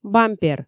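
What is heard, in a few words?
"бампер" Komi, female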